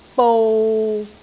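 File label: "bou6", Cantonese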